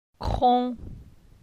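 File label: "Cron", French; phonetique; la syllabe on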